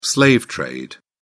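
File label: "slave trade", British English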